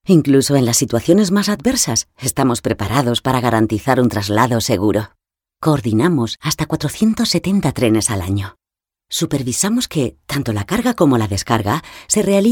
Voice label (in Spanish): Voz femenina grave